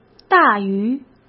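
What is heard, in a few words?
"大于", Mandarin Chinese; chinese flashcards; hsk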